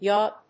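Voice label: "yawp", English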